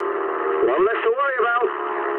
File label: eng player killed enemy mood med, speech eng; War Thunder